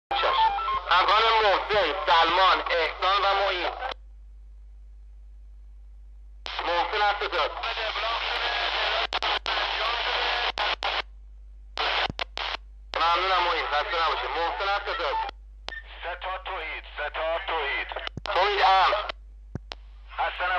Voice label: police wireless voice